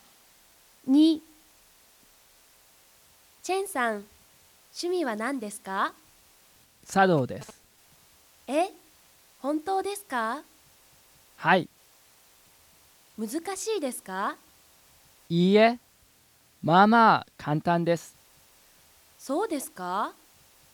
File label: Conversation